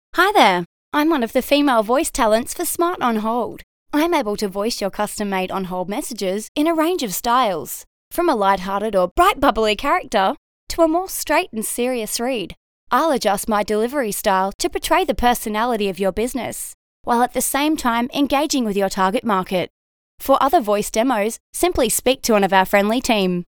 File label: FEMALE